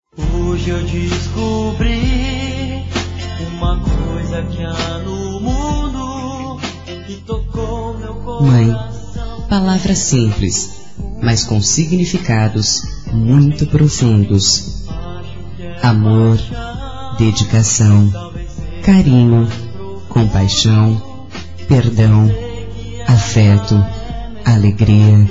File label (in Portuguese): Telemensagem dia das Maes; COMEMORATIVAS